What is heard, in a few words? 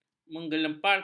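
"menggeleparkan", Malay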